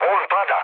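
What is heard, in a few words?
Serbian aircraft destroyed mood low, speech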